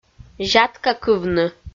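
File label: "зяткакывны", Komi-Zyrian, female; audio dict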